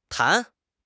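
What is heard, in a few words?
"tan2", Mandarin Chinese; HSK; HSK4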